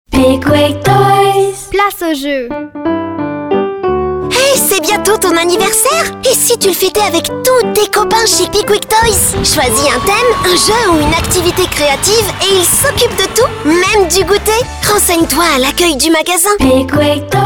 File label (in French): voix enfant spot pub; PICWIC TOYS